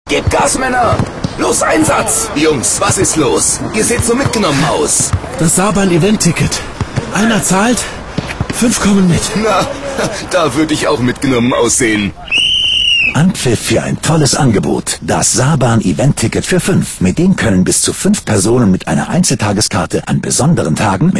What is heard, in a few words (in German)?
funk saarbahn